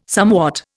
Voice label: "somewhat", Indian